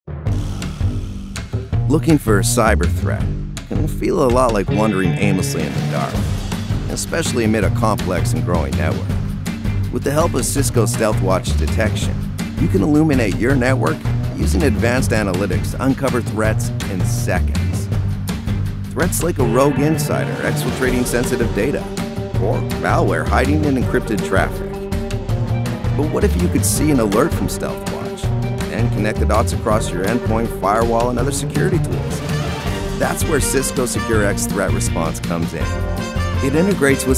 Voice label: Corporate